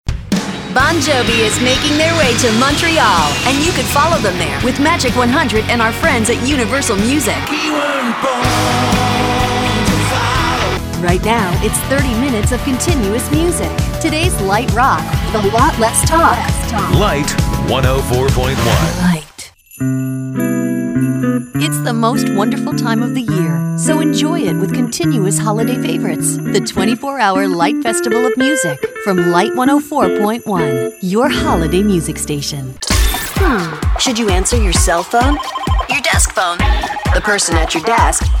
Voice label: radio imaging